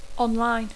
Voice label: "online", English

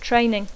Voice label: "training", English

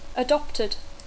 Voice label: "adopted", English

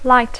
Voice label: "light", English; encendre; claror; llum; verb tr; semàfor

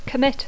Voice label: "commit", English

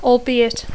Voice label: "albeit", English

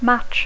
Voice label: "match", English; coincidir; partit; llumí; verb tr; igualar; aparellar; [ESPORT] partit; misto

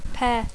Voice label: "pair", English

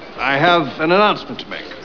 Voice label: announcement

